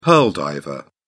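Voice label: "pearl diver", British English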